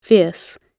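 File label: "fierce", British English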